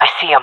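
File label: sees enemy, female; vo; Counter Strike Model Pack; CS:GO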